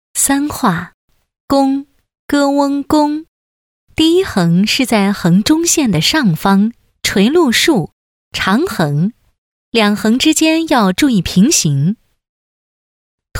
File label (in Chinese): 培训课件 拼音; 拼音教育部首